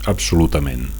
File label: "absolutament", Catalan; absolutely